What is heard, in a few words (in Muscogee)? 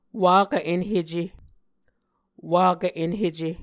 "wakv enhece", Muscogee